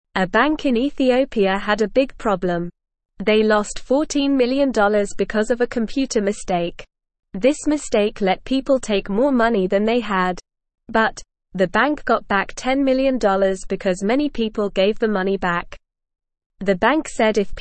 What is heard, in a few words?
English Beginner NORMAL Reading; English Newsroom; Bank loses 14 million due to computer mistake